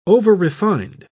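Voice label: "overrefined", British English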